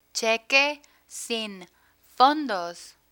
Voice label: "cheque sin fondos", Spanish; banking vocabulary